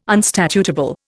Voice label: "unstatutable", Indian